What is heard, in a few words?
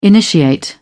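"initiate", British English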